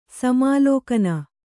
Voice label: "ಸಮಾಲೋಕನ", Kannada

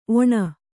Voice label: "ಒಣ", Kannada

